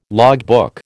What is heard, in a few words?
"logbook", American